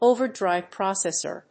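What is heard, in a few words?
"overdrive processor", English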